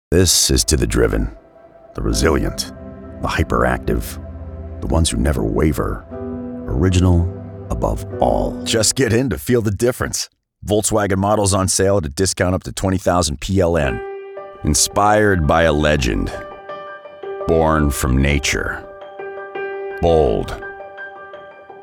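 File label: English Voiceover epic style bass intonation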